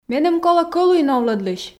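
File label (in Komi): меным колӧ кӧлуй новлӧдлысь, Komi, female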